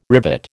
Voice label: "rivet", American

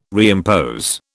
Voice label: "reimpose", British

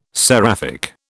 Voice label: "seraphic", British

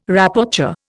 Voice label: "Rapporteur", Indian

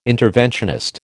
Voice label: "interventionist", American

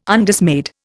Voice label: "Undismayed", Indian

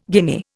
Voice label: "guinea", Indian

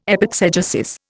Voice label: "epexegesis", Indian

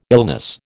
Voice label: "illness", American

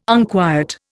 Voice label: "unquiet", Indian

